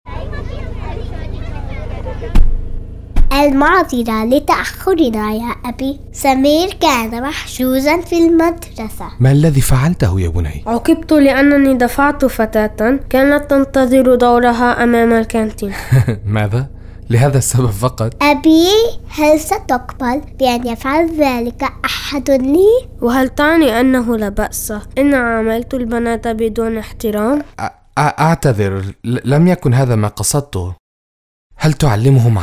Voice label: Radio Arabic; CALD